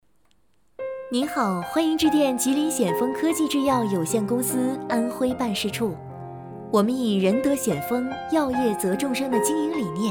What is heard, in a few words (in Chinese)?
专业女声8, 纪录片-温婉大气; 中文